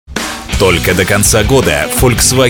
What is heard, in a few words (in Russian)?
muzhskie diktor golosa